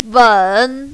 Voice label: "wen3", mandarin, female